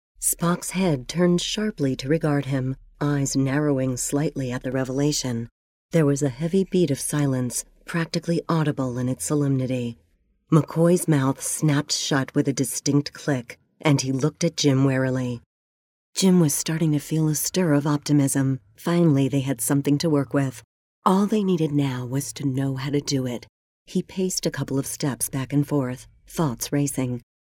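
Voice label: English US, female, audio book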